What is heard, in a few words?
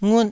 "ngut1", taiwanese syllables